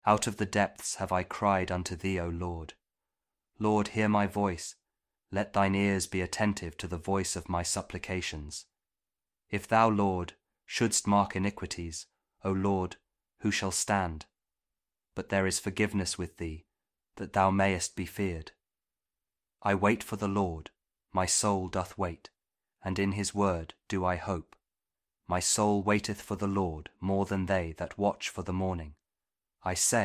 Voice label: psalm kjv king james audio bible